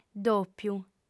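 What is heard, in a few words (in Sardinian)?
"dòpiu", female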